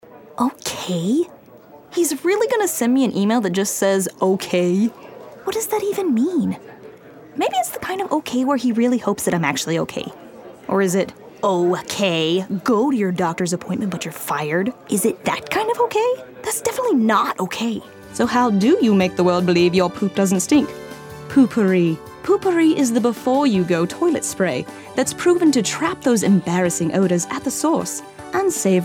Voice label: Commercial Character